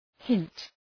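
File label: "hint", English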